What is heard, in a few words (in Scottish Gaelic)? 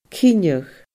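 "cinneadh", Scottish Gaelic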